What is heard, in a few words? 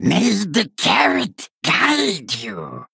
Male Vermin Play